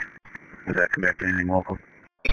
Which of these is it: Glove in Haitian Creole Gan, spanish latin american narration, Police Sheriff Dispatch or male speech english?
Police Sheriff Dispatch